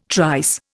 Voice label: "trice", Indian